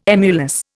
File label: "emulous", Indian